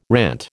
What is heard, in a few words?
"rant", American